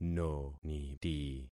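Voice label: "noniti", concatenated syllables; Affixing experiment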